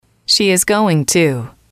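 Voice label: "she is going to", English, female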